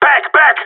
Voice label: radio bot fallback; player vo gsg9

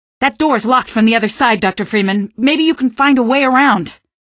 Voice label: female; Garry's Mod; hl2; Streetwar; tunnel

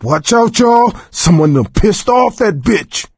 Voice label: witch getting angry, coach; Left 4 Dead 2

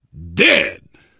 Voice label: kill confirmation, biker, survivor voice; Left 4 Dead 2

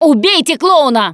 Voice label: see clowns, russian; Producer

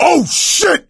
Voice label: exclamation, survivor voice; Left 4 Dead 2; coach

